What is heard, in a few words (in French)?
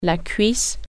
"thigh", French